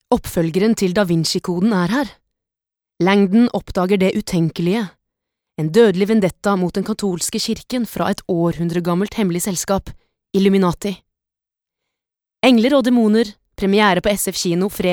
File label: no com dramatic